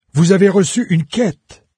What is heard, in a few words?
new quest, fr, NARRATOR; Fate